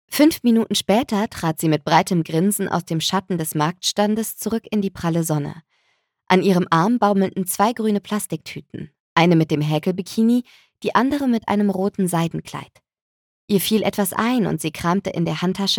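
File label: German Audiobook female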